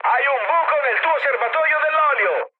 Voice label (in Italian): damaged oil, mood high, speech it; War Thunder